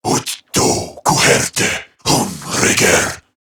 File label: Patrol Corrupted Grineer Male